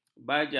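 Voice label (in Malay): "bajak", Malay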